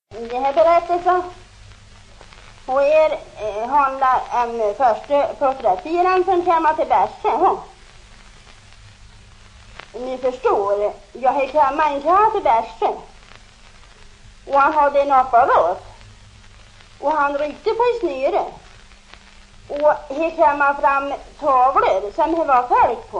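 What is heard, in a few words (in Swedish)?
Bergsjö Hälsingland, dialekter; HLS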